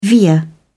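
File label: "veer", British English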